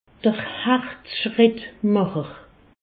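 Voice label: "herzschrittmacher", Haut Rhin; medecine; pace maker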